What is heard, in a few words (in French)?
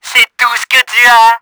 SCRATCH, french; Battle Engine Aquila; XBOX